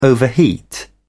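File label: "overheat", British English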